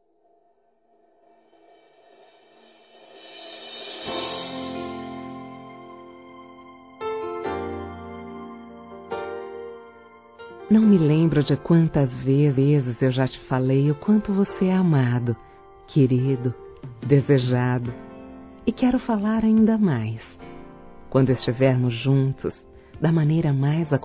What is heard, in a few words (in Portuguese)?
Romantica Sedução Feminino; Imenso Desejo de Você; Telemensagens